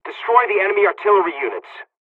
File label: ground attack, dialogs hungarian; War Thunder